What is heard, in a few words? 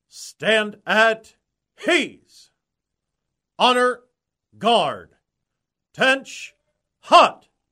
Stand At Ease Attention